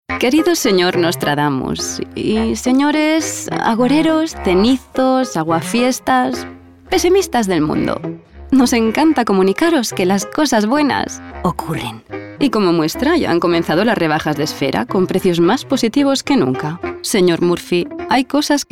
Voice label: female spanish comercial; ideasonora

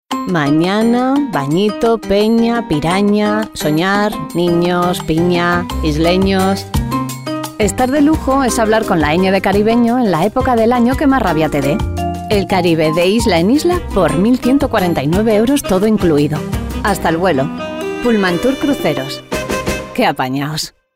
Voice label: female spanish travel brand comercial

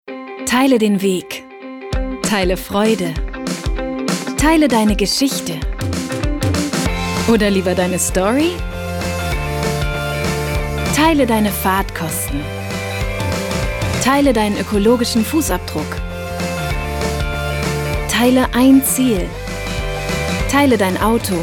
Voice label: female german insurance comercial; ideasonora

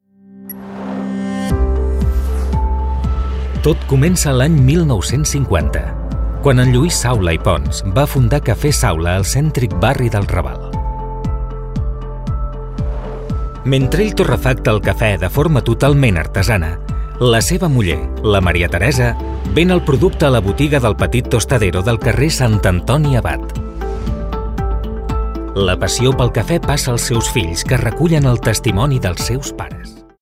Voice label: male catalan documentary comercial; ideasonora

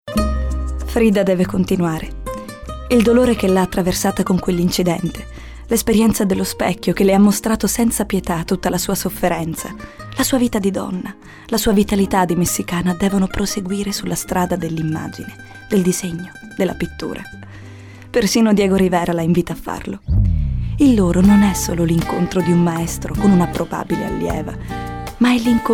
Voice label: female italian comercial; ideasonora

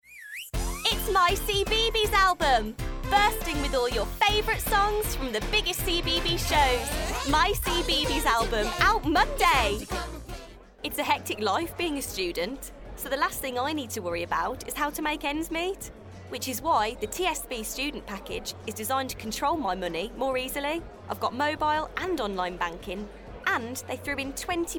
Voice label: female british english comercial; ideasonora

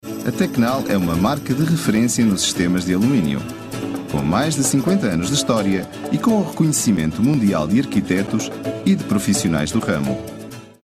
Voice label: male portuguese comercial; ideasonora

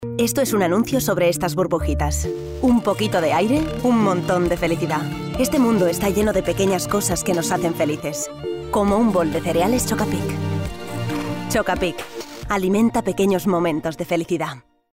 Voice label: female spanish cereal comercial

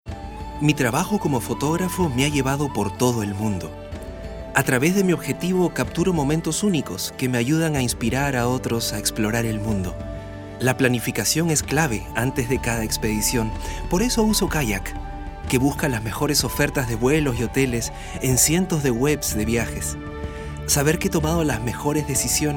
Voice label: male latam peruvian comercial; ideasonora

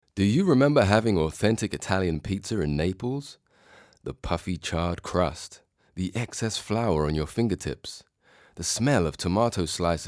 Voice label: male british english comercial; ideasonora

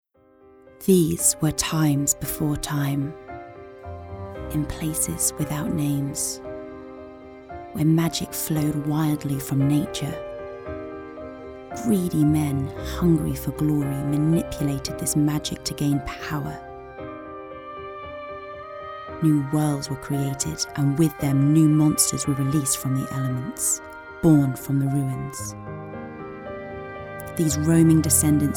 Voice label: female british english book comercial

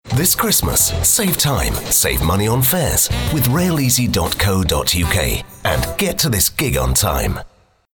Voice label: male british english money comercial

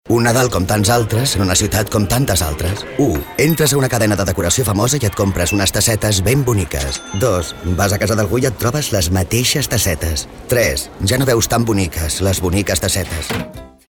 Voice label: male catalan decoration comercial; ideasonora

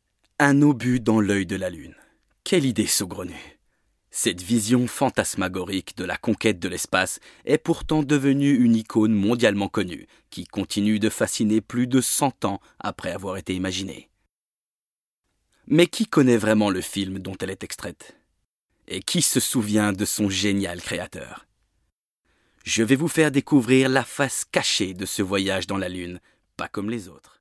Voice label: male french narrative comercial; ideasonora

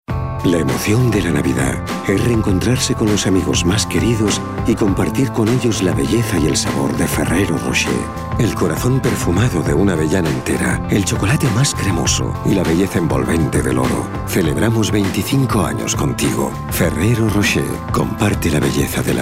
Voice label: male spanish sweet comercial

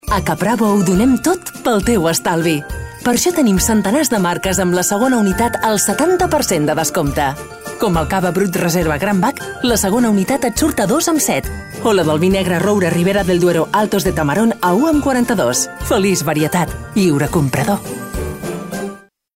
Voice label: female catalan food store comercial

